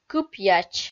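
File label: "кыпъяч", Komi, female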